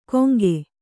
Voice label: "ಗೊಂಕೆ", Kannada

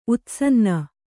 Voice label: "ಉತ್ಸನ್ನ", Kannada; utsanna; decayed; deteriorated; decomposed.

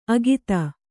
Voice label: "ಅಗಿತ", Kannada

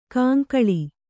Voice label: "ಕಂಕಳ", Kannada

